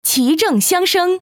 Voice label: "qizhengxiangsheng", female

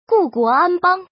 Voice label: "guguoanbang", female

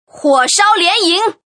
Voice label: female; huoshaolianying

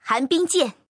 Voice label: "hanbing", female

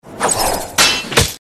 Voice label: "shoulijian", female

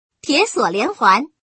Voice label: "tiesuo", female

